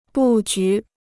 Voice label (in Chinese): "布局", Chinese